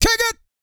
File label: COUNT, Vocal Planet, R&B & Dance, COUNTING; ILIO